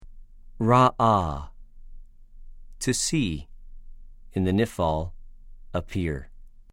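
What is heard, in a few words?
"רָאָה", Hebrew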